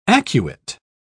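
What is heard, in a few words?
"acuate", English, British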